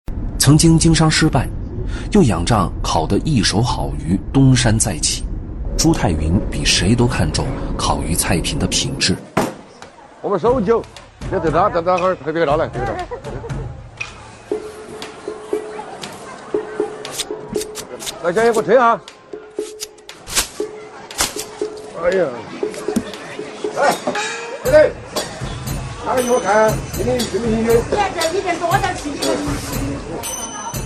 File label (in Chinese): 男 探索发现; 央10; 最是一抹中国味